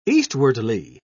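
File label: "eastwardly", British English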